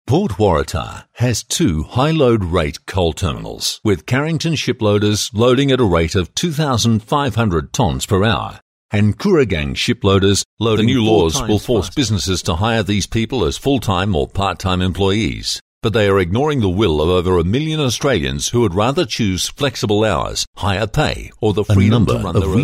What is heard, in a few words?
Narrator